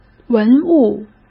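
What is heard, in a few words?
"文物", Mandarin Chinese; hsk; chinese-flashcards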